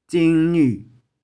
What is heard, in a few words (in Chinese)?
"cing1niu2", 玉環